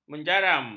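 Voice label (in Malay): "menjaram", Malay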